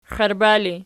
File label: xiarba erg, archi